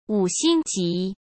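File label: "五星级", Mandarin; chinese flashcards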